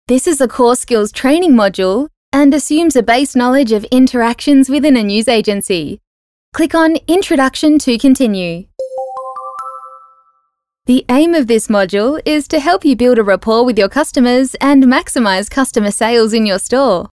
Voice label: Elearning incl SFX